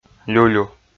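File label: "люлю" Komi, male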